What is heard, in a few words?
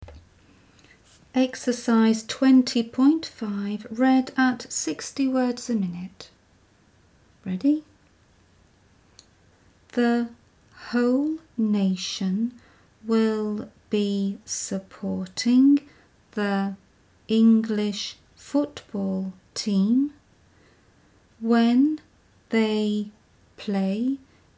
60wpm; Teeline